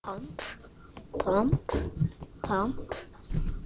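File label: "pump", spoken word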